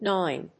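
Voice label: "gnawing", English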